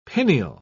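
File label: "pineal", British English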